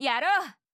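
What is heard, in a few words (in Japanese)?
"やろう！", Japanese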